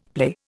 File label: "play", Indian; Dictionary